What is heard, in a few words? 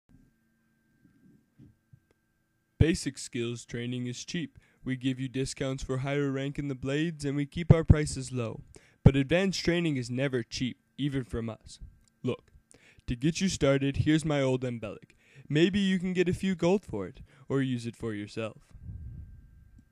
high elf audition, voice acting